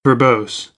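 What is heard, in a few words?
"verbose", American, English; AmericanBritish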